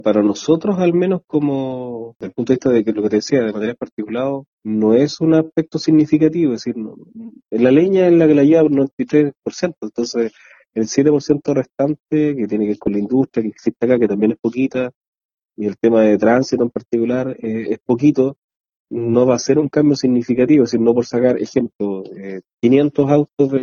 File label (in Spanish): cuña; RESTRICCION